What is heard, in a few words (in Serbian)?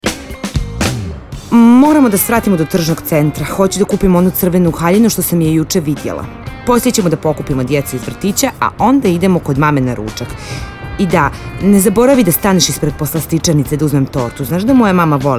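radio džingl; pojas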